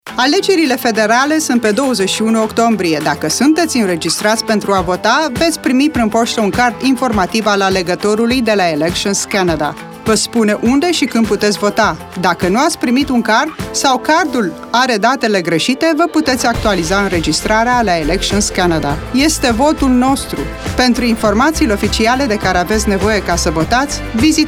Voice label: Romanian, radio advert; Voter information Card

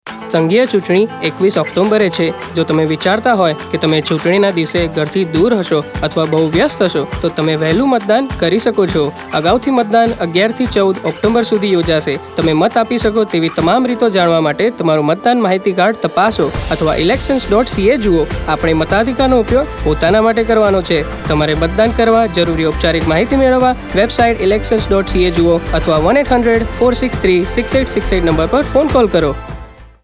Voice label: early voting option Gujarati, radio advert

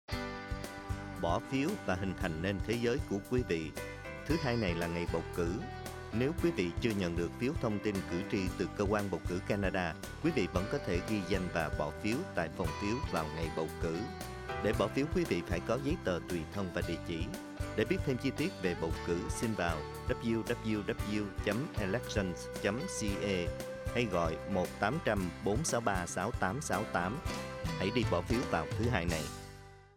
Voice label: election_vietnamese, radio ad

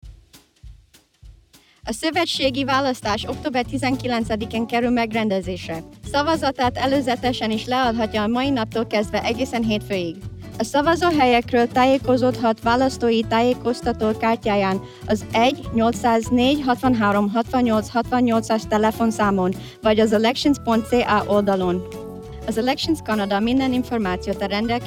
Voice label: hungarian; ADVANCE POLLS

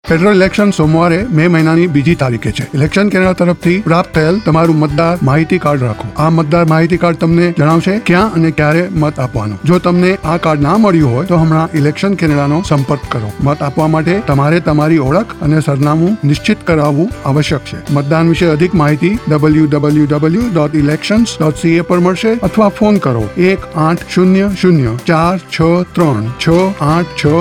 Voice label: gujarati

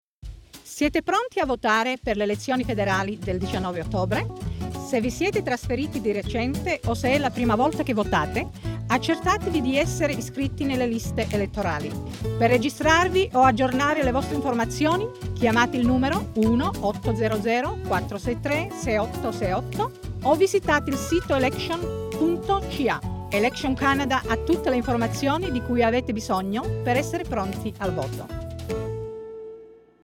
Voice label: italian; REGISTRATION CANADA

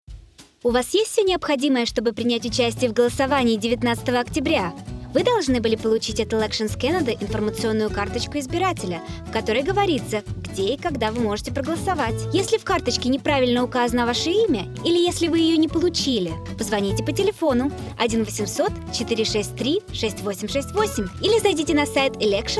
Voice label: russian

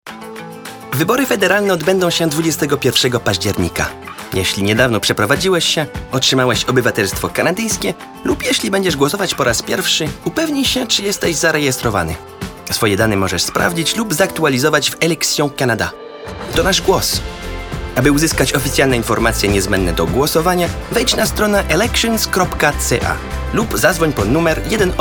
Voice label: Polish, radio advert

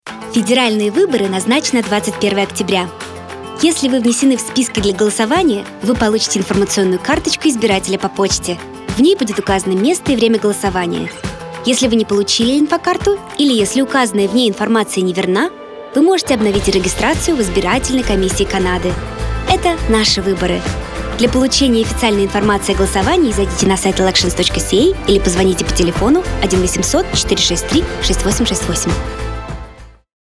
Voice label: Russian, radio advert; Voter information Card

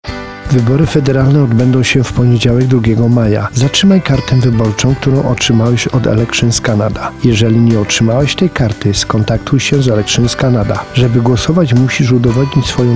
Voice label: polish

